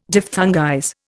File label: "diphthongize", Indian